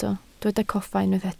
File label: "coffa", Welsh